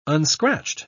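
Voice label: "unscratched", English, British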